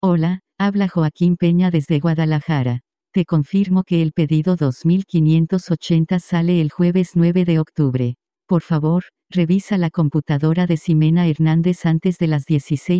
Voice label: mexico practice, spanish